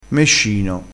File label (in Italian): "mescino", crespinese